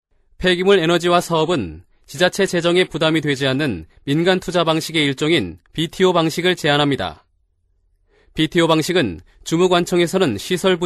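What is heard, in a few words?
Korean male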